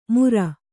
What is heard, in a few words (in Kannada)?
"ಮುರ", Kannada